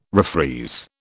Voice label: "refreeze", British